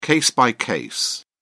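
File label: "case-by-case", British English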